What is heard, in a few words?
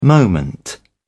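"moment", British English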